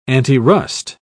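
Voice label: "antirust", British English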